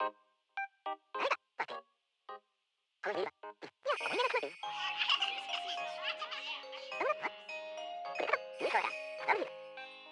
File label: Toy Day Radio Commercial